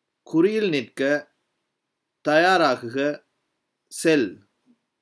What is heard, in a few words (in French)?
tamoul à vos marques prêts partez; traduction tamoul